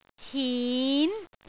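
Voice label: "hin2", Cantonese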